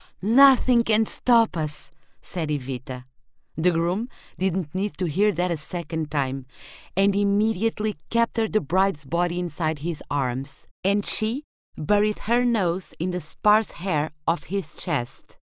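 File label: Portuguese English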